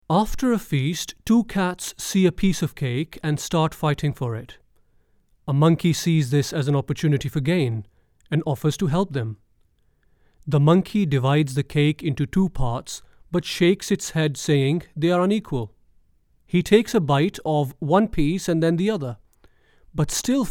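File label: Urdu English Accented Male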